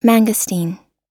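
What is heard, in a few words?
"manioc", us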